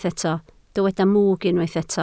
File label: "mwg", Welsh